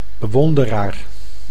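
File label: "bewonderaar", Dutch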